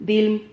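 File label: "dim", Spanish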